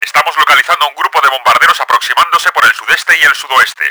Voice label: enemy bombers approaching, Spanish; Battle Engine Aquila; XBOX

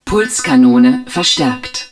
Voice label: pulse cannon augmented, german

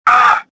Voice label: choke; swamp

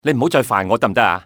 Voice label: "left alone", male; XBOX; civ

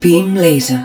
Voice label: Rocket Pod, Spanish

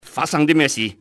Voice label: whats happening here, enemy cantonese male; XBOX

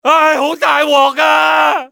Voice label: my god, male; XBOX; civ

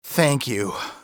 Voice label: thank, english male; XBOX; civ

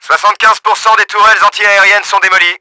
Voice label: FLAK, french; Battle Engine Aquila; XBOX

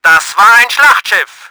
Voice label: BATTLESHIP DESTROYED, german; Battle Engine Aquila

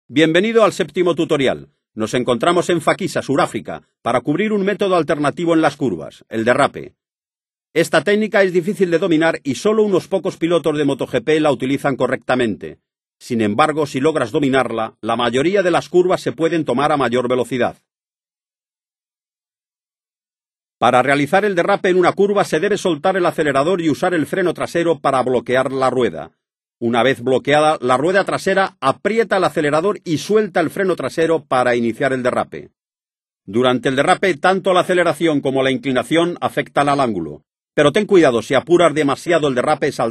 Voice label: powerslide spanish; MotoGP 3

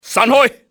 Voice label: spread out, cantonese male; XBOX; enemy

